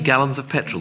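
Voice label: "gallons oF petro", Standard Southern British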